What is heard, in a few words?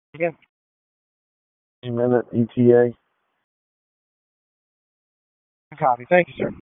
PS TAC, p25